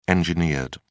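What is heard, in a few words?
"engineered", British English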